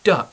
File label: "duck", English; domaci zvirata